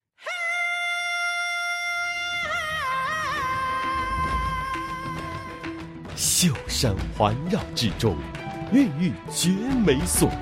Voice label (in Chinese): 男, 国语男声配音; 张家界福建推介会系列; 宝峰湖